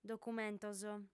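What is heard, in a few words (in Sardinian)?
"documentos", female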